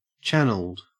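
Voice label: "channeled", Australian English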